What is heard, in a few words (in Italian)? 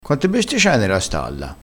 "bestie", crespinese